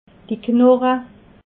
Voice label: "knoche", alsace

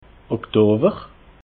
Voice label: "oktower", alsace

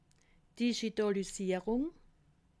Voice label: "digitalisierung", alsace

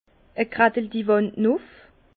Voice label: "graddel", Bas Rhin; vin; fait grimper aux murs

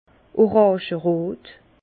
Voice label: "orangerot", Bas Rhin

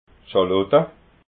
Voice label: "schalotta", alsace

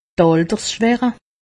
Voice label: "alterschwache", alsace; medecine

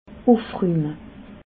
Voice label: "ufrume", alsace

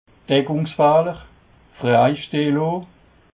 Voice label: "deckungsfehler", alsace

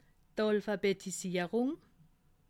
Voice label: "analphabetisierung", alsace

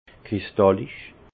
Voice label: "kristallisch", Alsace; vin; cristalin

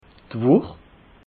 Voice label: "wuch", alsace

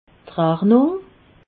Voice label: "rachnung", alsace

